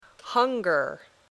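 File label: "hunger", English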